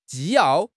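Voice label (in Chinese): "纪要", Chinese; HSK6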